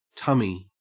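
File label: "tummy", Australian English; pronunciations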